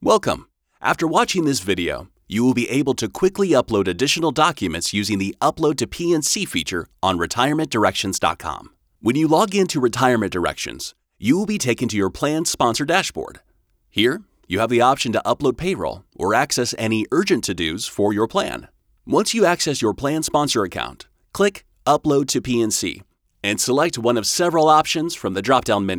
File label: Elearning raw; PNC